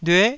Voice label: "lyue9", Taiwanese